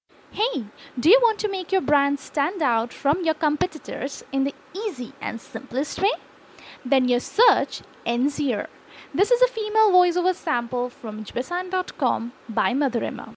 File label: Real English Female Voiceover